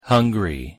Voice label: "hungry", en